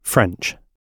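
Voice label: "french", British English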